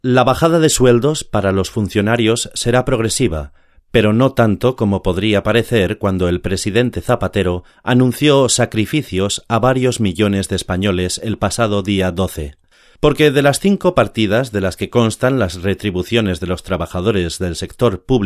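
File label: Spanish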